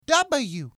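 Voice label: "W", English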